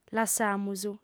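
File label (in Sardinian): "lassamus", Sardinian, female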